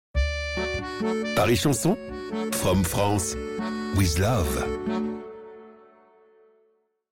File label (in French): Chanson Jingle From France with love, Voix masculine; Paris